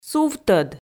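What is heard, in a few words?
"сувтӧд", Komi, female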